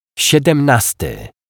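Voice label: "siedemnasty", Polish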